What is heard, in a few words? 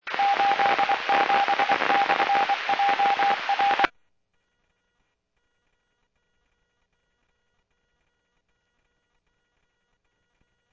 Medevac, scanner; PHIAIREVACHelRad